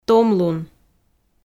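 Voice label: "томлун", Komi, female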